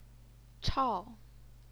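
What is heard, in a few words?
"chao", fourth tone, Chinese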